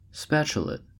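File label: "spatulate", US English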